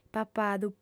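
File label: "papadu" Sardinian, female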